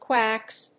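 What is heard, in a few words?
"quacks", English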